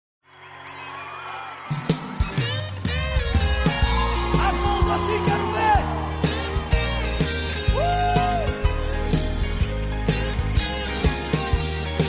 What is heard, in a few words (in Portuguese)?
dia mãe distante, voz feminina; dia das maes para minha mae voz feminina distante